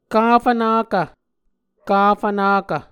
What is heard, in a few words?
"kafvnakv", Muscogee; A Dictionary of Creek/Muskogee; SIL International; Onvkv Ohhvyayices